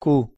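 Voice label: "q" Spanish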